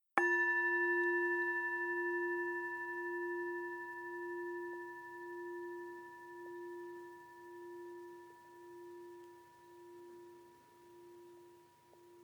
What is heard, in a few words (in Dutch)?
Mildheidmeditatie een neutrale persoon